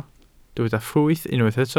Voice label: "ffrwyth", Welsh